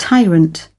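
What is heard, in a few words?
"tyrant", British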